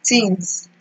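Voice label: "tings", Nigerian Pidgin, female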